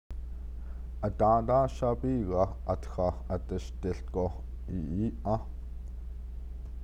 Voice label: I spent the day yesterday taking part in a stick, Navajo